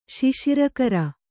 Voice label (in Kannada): "ಶಿಶಿರಕರ", Kannada